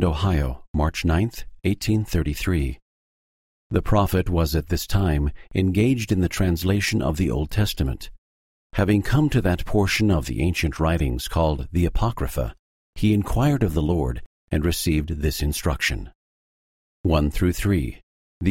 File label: section female voice eng